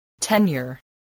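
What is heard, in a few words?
"tenure", English word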